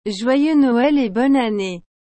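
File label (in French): "Joyeux Noël et Bonne Année", French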